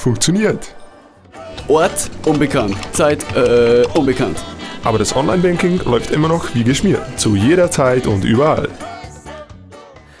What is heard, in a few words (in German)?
Werbung Sparkasse